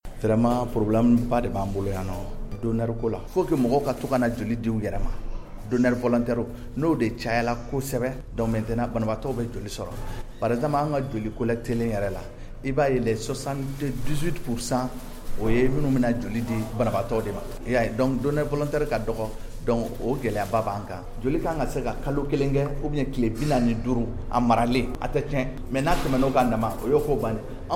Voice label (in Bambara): magazine bamanankan